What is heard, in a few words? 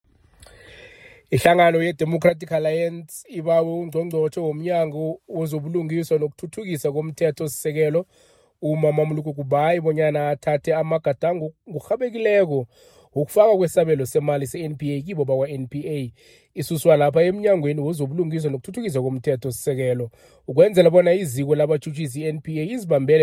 isiNdebele